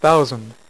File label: "1000", Dealer's Speech; PowerPOKER Demo